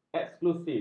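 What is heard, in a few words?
"eksklusif", Malay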